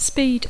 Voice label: "speed", English